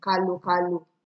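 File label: "kalokalo", Nigerian Pidgin, female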